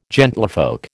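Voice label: "gentlefolk", American English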